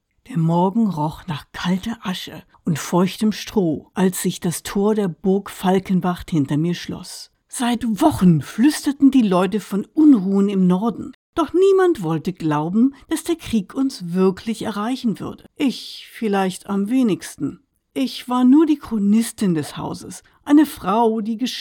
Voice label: Fiction History German